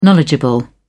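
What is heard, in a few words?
"knowledgeable", English, British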